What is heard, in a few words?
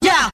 "ya", Voice